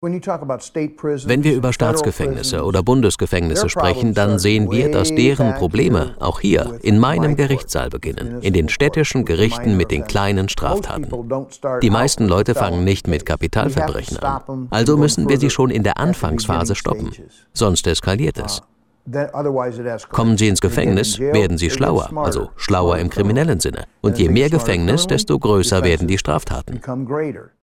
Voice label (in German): sprecher o ton richter